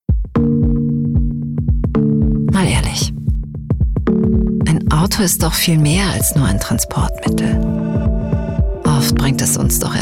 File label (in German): IMAGE Automobil Storytelling feminin laessig